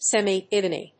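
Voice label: "semidivine", English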